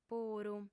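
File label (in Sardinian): "puru", female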